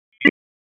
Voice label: Police Northwest District Tac 1; Raleigh; wake